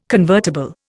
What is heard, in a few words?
"convertible", Indian